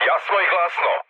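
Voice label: Serbian chat; War Thunder; speech srb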